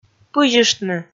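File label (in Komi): "пызьыштны", Komi, female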